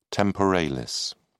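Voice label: "temporalis", British English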